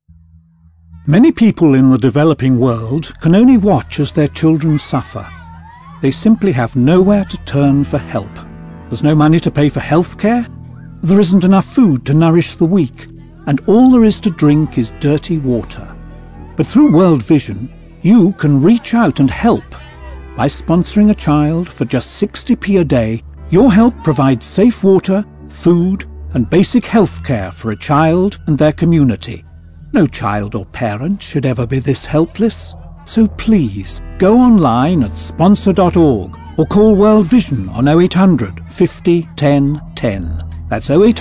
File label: charity appeal